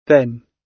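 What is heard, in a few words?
"then", British English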